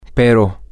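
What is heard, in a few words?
but, spanish, male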